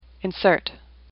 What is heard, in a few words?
"insert", English; AWL